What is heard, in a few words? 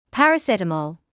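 "paracetamol" English, British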